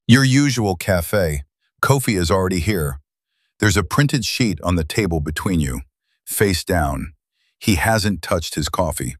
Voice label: narration; lowball offer